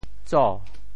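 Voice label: "tso2", Teochew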